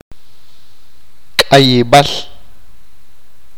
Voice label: Market, achi

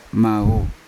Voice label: "mavu", Yawa; blood; unat

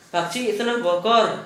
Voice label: toy broken, Gta, Dialect: Hill; household

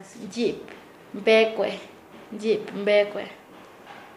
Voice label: "caripaspada", Nasa Yuwe; paez

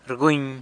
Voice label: "rguny", San Lucas Quiaviní; scratches

